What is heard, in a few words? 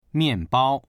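"mian4bao1", Mandarin Chinese; 面包; パン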